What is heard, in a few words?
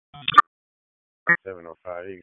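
Wake Forest Police Dispatch, p25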